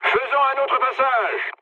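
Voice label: bomb failure, mood low, French; War Thunder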